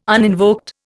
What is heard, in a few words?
"uninvoked", Indian